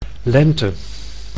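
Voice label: "lente", Dutch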